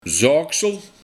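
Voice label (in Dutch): "Zoak", dialect; Zoak (sel); Moedermelk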